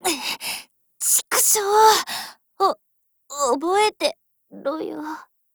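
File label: retreat, Japanese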